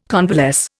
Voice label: "convalesce", Indian